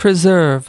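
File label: "preserve", English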